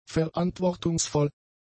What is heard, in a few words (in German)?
"verantwortungsvoll", German; adjectives